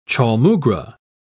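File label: "chaulmoogra", British English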